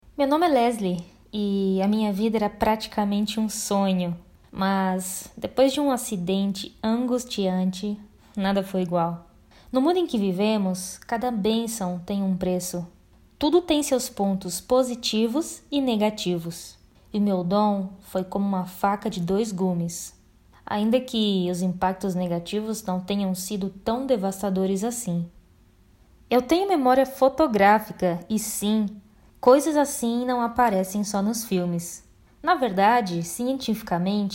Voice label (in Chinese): 葡萄牙语女 外语; 小语种